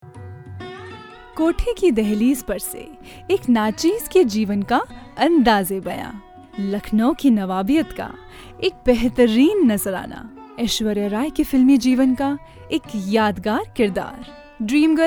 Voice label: promo hindi

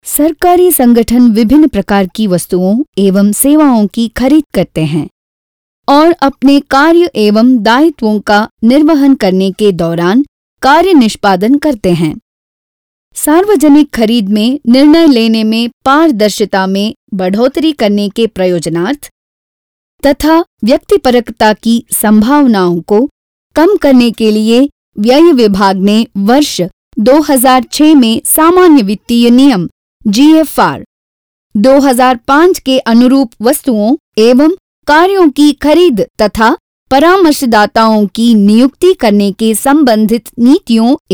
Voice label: Elearning Hindi

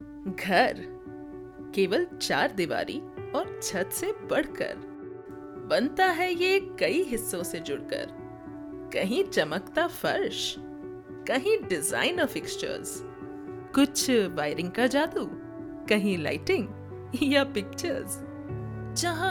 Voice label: Hindi Commercial

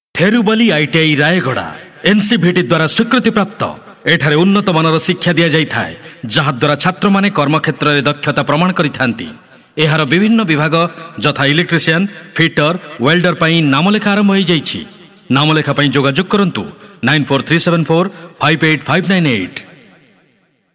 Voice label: radio oriya

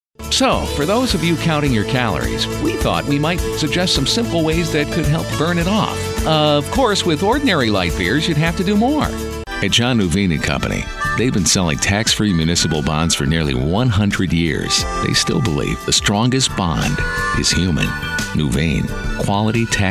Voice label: commercials

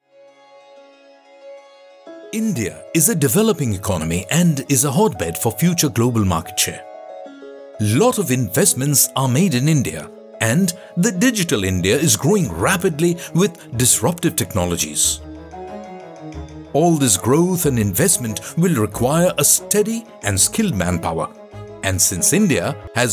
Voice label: ENGLISH CORPORATE

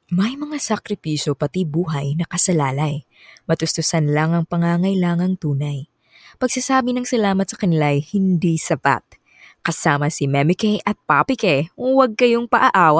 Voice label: Tagalog

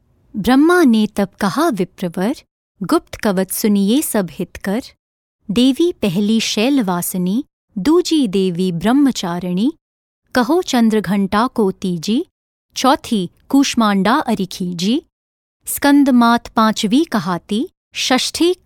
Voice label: Hindi VO

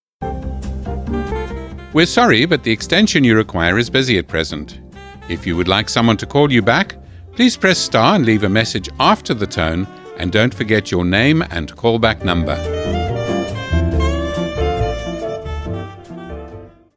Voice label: on Hold

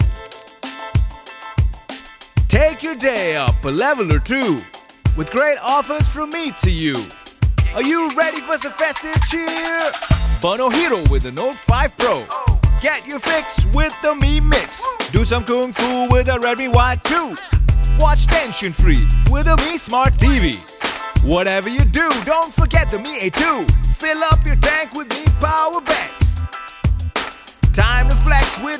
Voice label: promo hindi

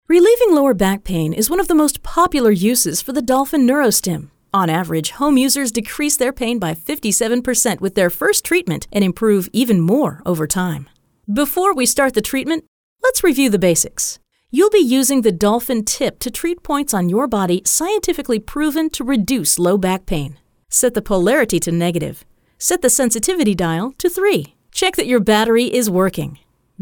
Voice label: explainer

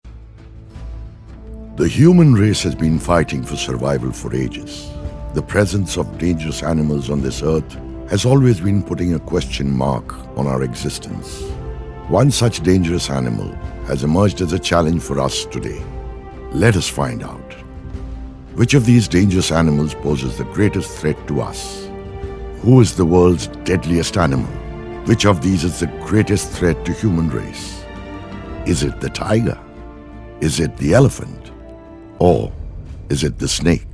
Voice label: Eng; Human race

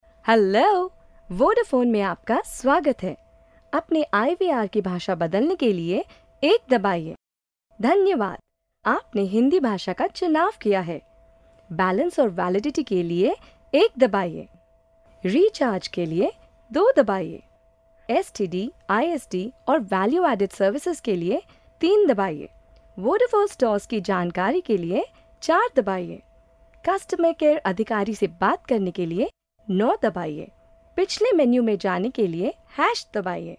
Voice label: ivr hindi

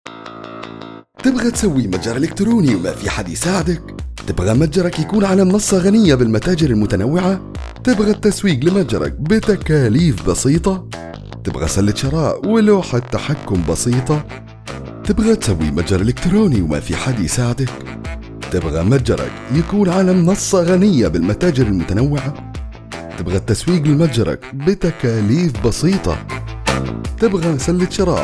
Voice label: Saudi

